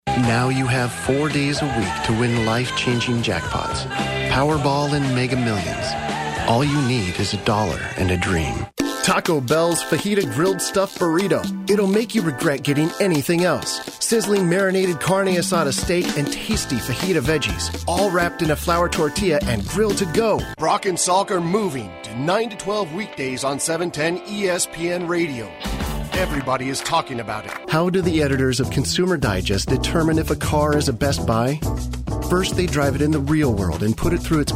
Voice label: warm